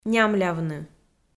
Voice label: "нямлявны", Komi

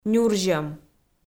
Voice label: "нюрзьӧм", Komi

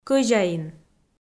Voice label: "кӧзяин" Komi

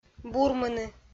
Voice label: "бурмыны" kpv f

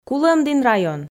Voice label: "кулӧмдін район", f

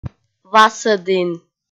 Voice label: "васӧдін" kpv f

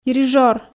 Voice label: "дирижёр", Komi

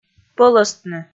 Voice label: "пӧлӧстны", Komi

